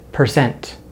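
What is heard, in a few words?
"percent", American English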